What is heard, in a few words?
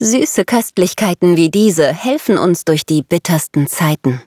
levelup, de; cookie run